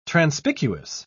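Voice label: "transpicuous", British English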